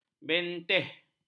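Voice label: "benteh", Malay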